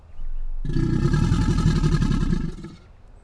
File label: male mic